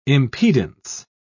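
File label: "impedance", British English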